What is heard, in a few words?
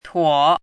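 "tuo3", Mandarin pinyin syllable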